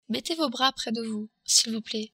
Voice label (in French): mettez bras pres de vous, french